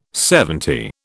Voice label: "seventy", British

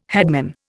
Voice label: "headman", Indian

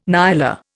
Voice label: "nyala", Indian

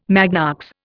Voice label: "magnox", Indian

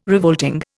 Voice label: "revolting", Indian

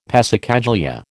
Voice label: "passacaglia", American

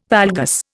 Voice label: "valgus", Indian

